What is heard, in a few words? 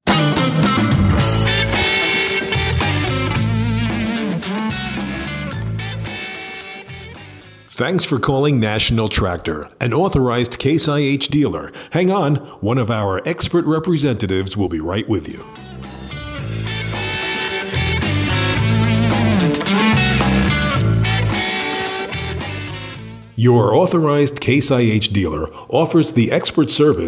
Messaging on Hold; Case IH; MediaPlace